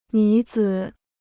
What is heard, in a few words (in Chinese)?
"女子", Chinese; 女子 (nǚ zǐ); woman; female